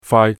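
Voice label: "faai3", Cantonese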